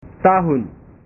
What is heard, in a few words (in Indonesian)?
"tahun", bahasa